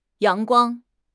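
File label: "yang2 guang1", Mandarin Chinese; HSK4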